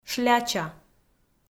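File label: "шляча", Komi, female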